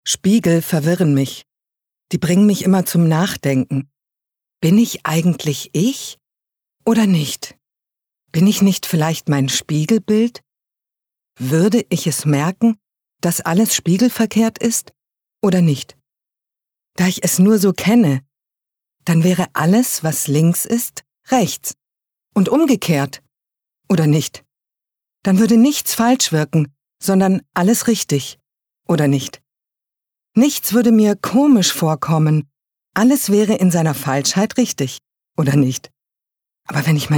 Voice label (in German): Innerer Monolog